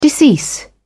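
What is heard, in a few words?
"decease", English, British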